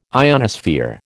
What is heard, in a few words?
"ionosphere", American